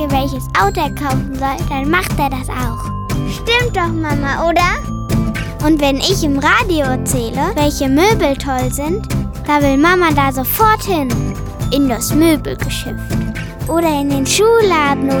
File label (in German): Werbung Kinderstimmen